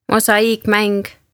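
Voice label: "Mosaiikmäng", Estonian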